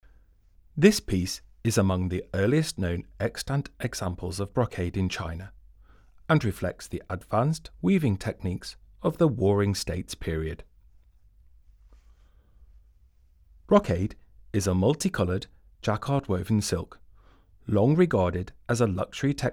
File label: ENG, audio guide; Piece with facing dragons and phoenixes, and geometric decorations; Chris Hall collection